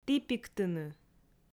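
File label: "тіпиктыны", Komi, female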